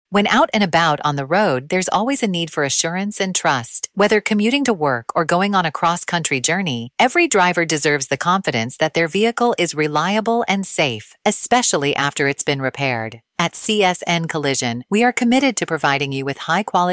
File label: female